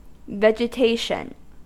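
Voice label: "vegetation", US English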